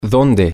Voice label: where, spanish, F

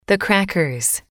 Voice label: "the crackers", English

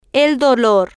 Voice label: "el Dolor", Spanish

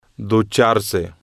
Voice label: "ducharse", Spanish

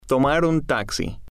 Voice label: "tomar un taxi", Spanish

